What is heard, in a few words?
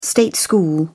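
"state school", British English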